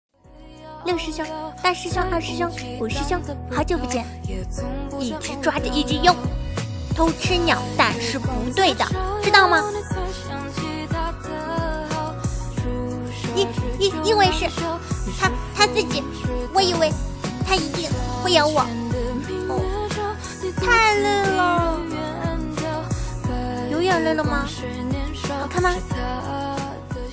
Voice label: 可爱向; 璇玑

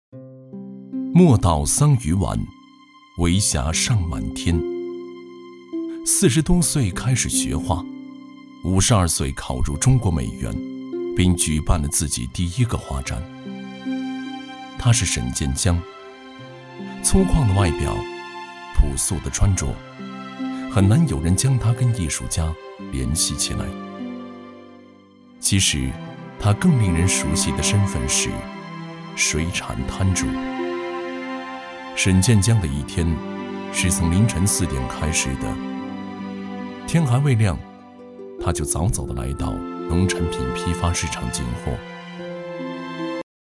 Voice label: 人物专题温情

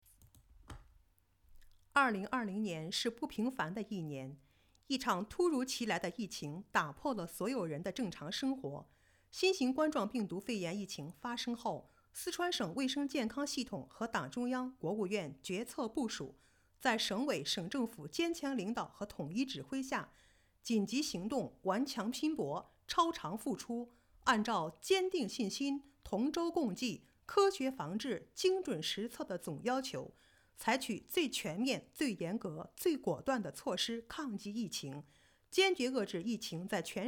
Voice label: 旁白试音

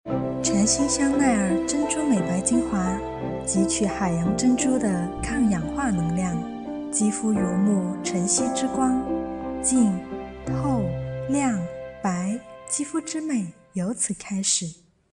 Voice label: 商业 广告 配音

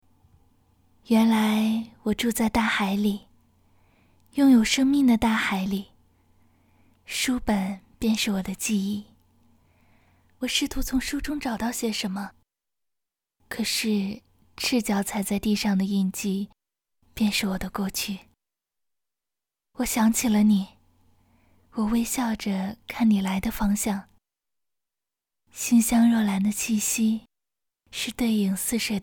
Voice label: 短视频旁白配音文艺

